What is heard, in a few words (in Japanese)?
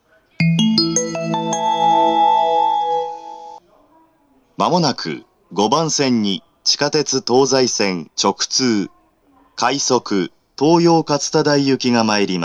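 接近放送, 男声 宇都宮型ATOS; 放送は「快速 東葉勝田台行」です。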